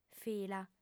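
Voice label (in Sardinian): "fila", female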